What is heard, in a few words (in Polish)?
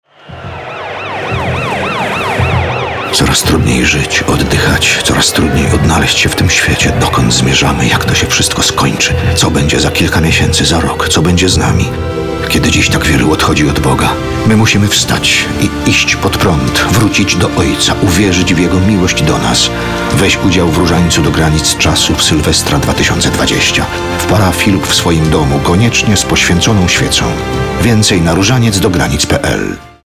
spot radiowy; Rozaniec Do Granic Czasu